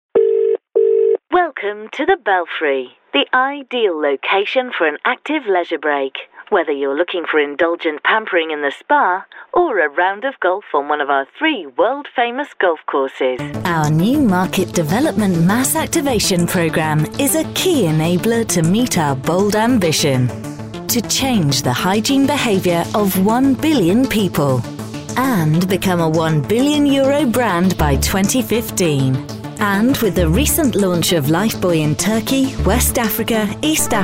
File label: on hold corporate